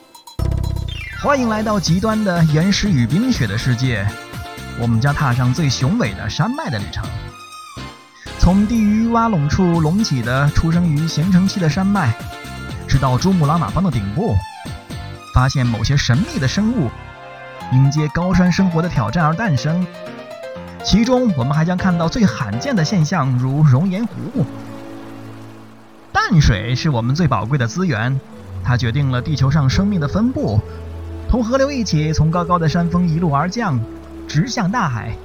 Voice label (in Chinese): 少儿 科教节目